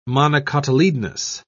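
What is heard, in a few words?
"monocotyledonous", English, British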